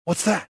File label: suspicious; XBOX; rebel